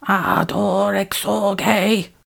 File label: "ardor exsurge" whisper, incantations older female; fire spells; psfx